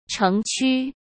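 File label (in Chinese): "城区", Mandarin; chinese flashcards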